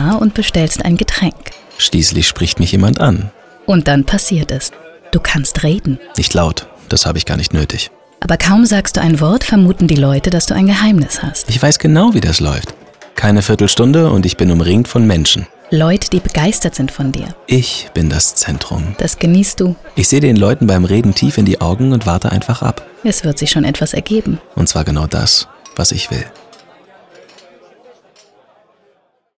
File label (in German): Dialog Kneipe Atmo